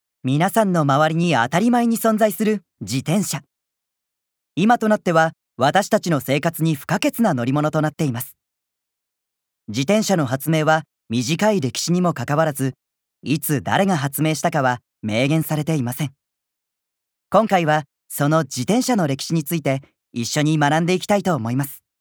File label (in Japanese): ナレーション